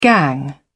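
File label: "gang", English, British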